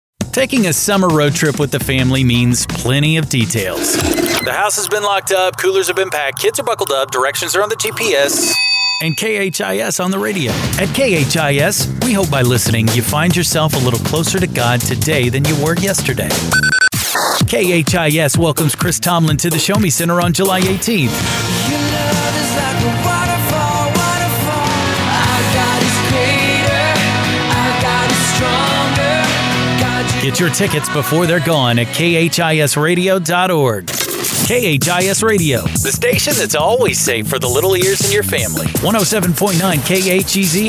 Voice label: Christian Imaging